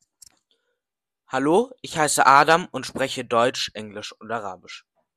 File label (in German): Arabisch Vorstellung